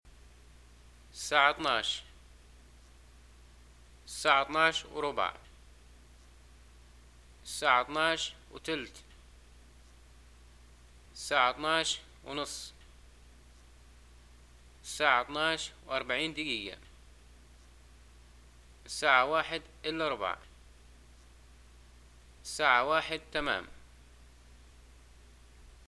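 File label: time, Libyan arabic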